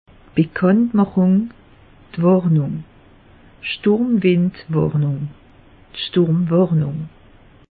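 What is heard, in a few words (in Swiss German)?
"bekanntmachung", Alsatian; meteo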